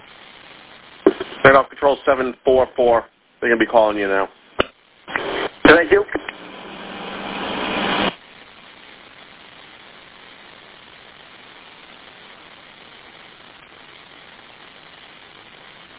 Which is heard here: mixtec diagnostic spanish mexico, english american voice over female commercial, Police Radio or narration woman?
Police Radio